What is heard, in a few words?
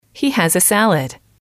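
"he has the salad", English, female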